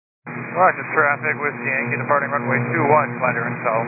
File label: 122 725MHz, scanner radio